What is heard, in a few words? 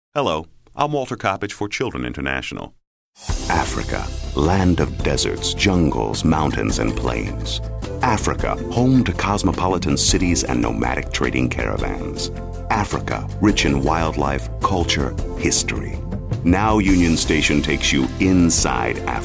vo demo